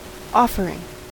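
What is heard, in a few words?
"offering", American English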